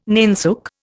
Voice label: "nainsook", Indian